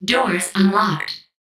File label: Suit Voice Doors Unlocked